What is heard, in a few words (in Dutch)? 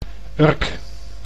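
"Urk", Dutch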